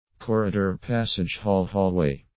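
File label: "corridor passage hall hallway", English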